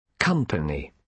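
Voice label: "company", British English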